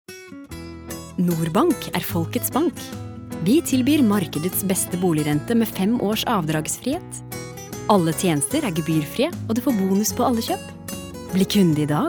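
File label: Norwegian commercial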